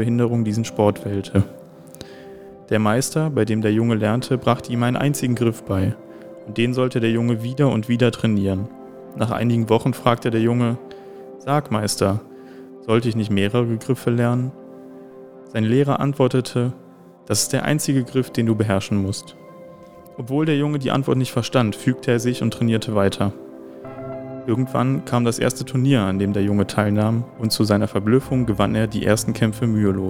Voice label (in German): Judo maennlich